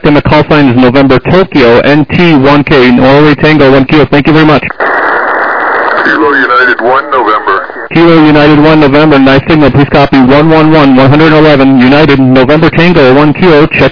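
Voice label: lower sideband 7MHz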